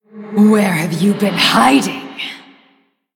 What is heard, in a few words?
Female Blood Elf Greetings; Valeera Deathknight